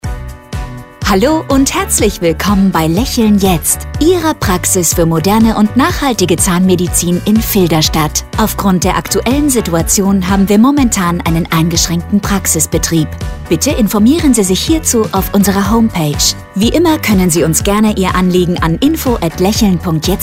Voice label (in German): Corona Ansage